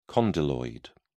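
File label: "condyloid", British English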